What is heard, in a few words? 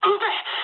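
Japanese attack position mood med; War Thunder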